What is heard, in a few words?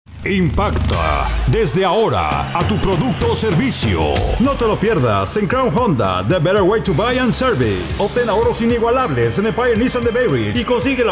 Spanish